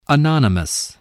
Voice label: "anonymous", spelling

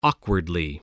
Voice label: "awkwardly", English

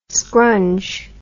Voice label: "scrunge", English

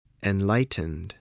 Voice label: "enlightened", spelling

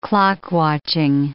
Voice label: "clock-watching", English

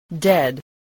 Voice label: "dead", English

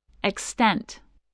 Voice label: "extent", voice

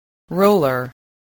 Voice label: "roller", English spelling

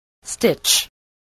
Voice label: "stitch", voice

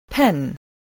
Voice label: "pen", English, voice

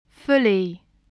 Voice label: "fully", English voice, spelling

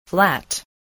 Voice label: "flat", spelling

